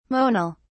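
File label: "monal", British English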